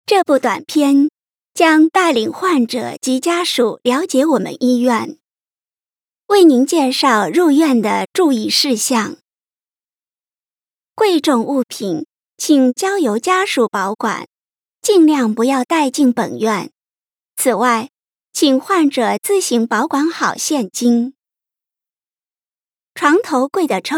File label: Chinese